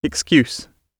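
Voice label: "excuse", British English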